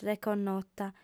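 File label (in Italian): "reconnota", lingua sarda, fem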